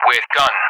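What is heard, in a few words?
"with guns", English; Weapon; DCS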